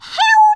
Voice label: "grenade", Brooklyn; Worms Armageddon